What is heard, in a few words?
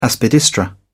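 "aspidistra", English, British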